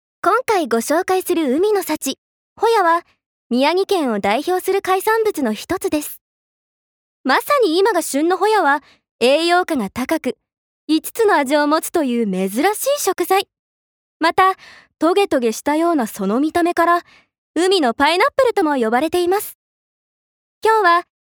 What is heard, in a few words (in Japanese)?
ナレーション